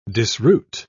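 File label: "disroot", British English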